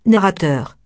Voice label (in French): narrateur